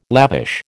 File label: "lavish", American